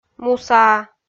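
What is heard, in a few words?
"мусаа", Komi, female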